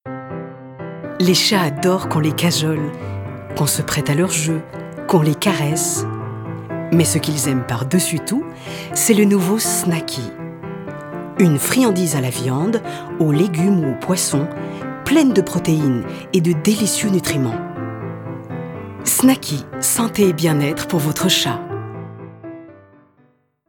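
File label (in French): Pub, voix off; Snacky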